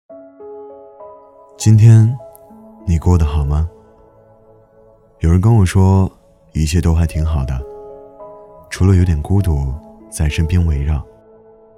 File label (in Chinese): 情感旁白爱情男